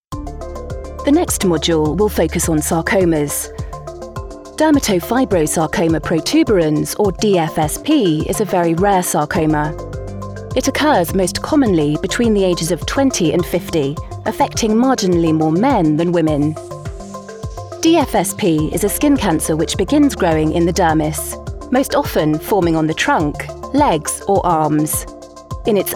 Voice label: Medical E learning Narration